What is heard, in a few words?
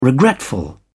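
"regretful", British English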